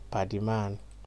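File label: "paddyman", Nigerian Pidgin, male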